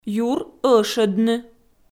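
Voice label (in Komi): "юр ӧшӧдны" Komi, female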